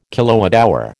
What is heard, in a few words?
"kWh", American